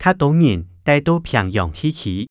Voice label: 較多人戴在平洋地區, 客語

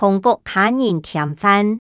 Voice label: 同屋下人添飯, 客語

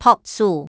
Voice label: "拍手", 客語

